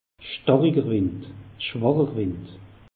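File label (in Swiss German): "starikerwind", Alsatian; meteo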